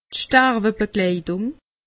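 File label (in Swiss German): "starwebegleitung", Alsatian; medecine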